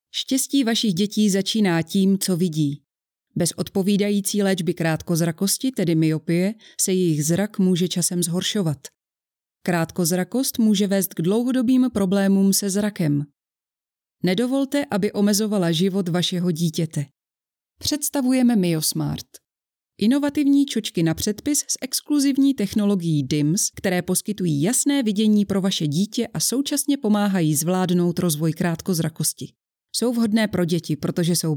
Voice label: 捷克语女快速电子书; 捷克语女1- 快速-电子书

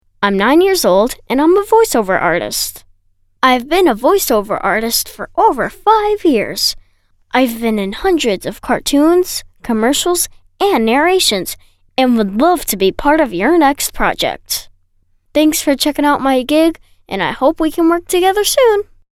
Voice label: 美式男童

